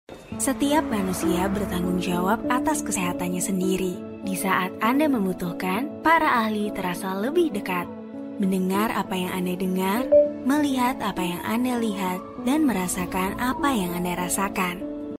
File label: Indonesia, female